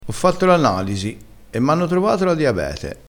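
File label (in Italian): "diabete", dialettale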